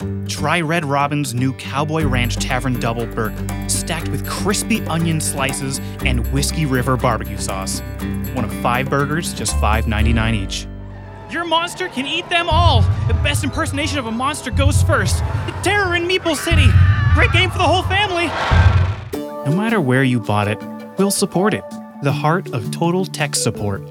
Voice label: Commerical

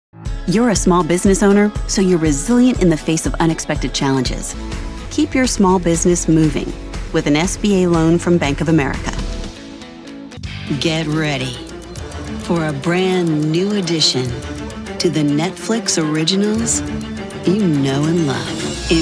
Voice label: Narration Corporate

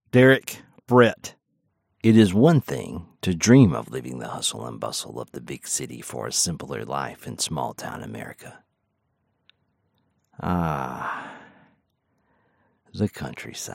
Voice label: Web voiceover video

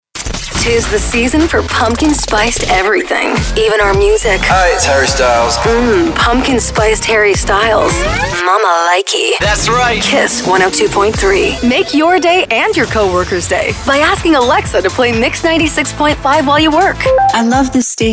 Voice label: Radio Imaging Hot AC Top 40s

